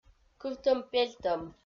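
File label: "кывтӧм-пельтӧм" Komi, female; kpv audio dict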